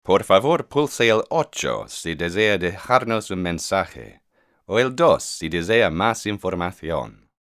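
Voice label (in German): spanisch amerikanisch, männlich, Telefonansagen; Sprecher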